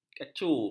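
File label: "kacu", Malay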